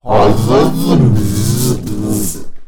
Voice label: Alien Scientist; little robot sound factory

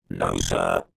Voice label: science fiction robot says no sir

